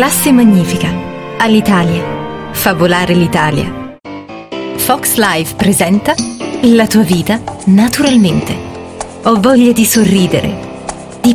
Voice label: ITALIAN COMMERCIAL